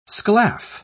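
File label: "sclaff", English word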